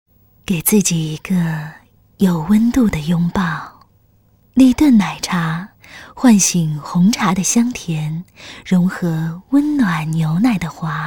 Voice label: VOICES ENGLISH Male Adult; KERSOUND